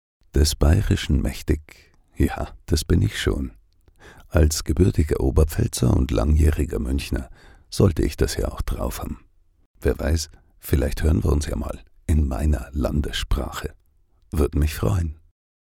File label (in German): bayerisch